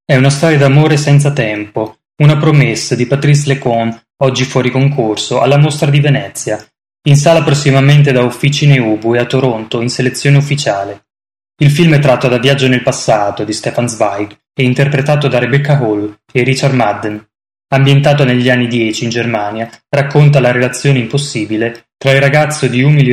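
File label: Italian, male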